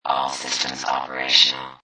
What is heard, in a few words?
Robot Voice All Systems Operational